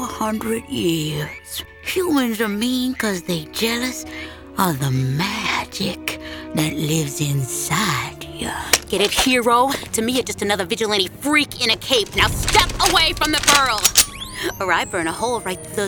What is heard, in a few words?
ANIMATION